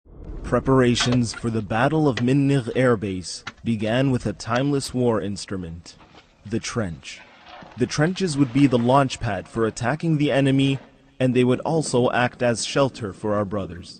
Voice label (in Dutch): De Engelse stem van Islamitische Staat is gepakt en spreekt